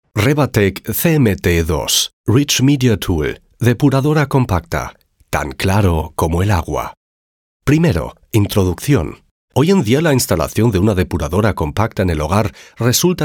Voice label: e-learning